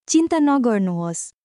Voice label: Nepali, basic phrases

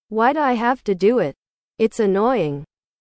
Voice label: english

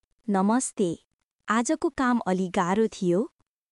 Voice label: Nepali, nepali greeting phrases; 日本の「お疲れ様です！」はネパール語でどう言う？職場で使える挨拶フレーズ集

